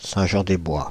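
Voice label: "Saint-Jean-des-Bois", French, Paris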